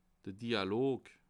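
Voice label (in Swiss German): "dialog", Bas Rhin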